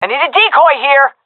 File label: request decoy, fem; vo; agents; Source Model Packs; Counter Strike Model Pack; CS2